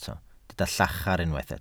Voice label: "llachar", Welsh